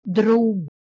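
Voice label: "dhroughm", Proto-Indo-European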